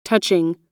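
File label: "touching", American English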